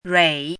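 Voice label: "rui3" Mandarin Chinese, dipping tone; chinese-voice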